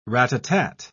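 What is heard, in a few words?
"ratatat", British English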